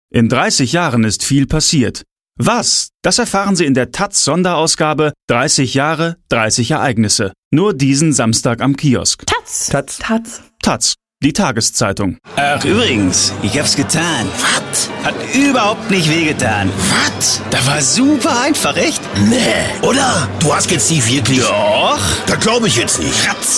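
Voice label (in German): werbung, deutsch männer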